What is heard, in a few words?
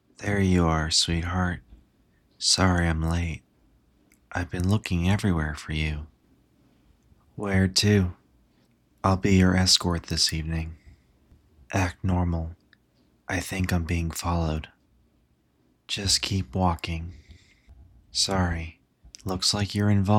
Impressions; Howl; Howl's Moving Castle